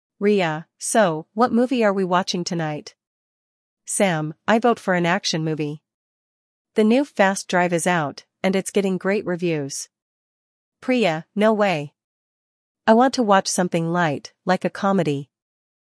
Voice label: conversation; celpip practice test; listening practice test